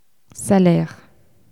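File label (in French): "salaire" French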